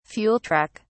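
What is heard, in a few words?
"fuel truck", British English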